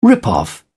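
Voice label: "rip off", British English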